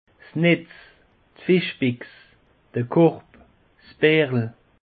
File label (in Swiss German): "netz", alsace; peche